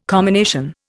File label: "commination", Indian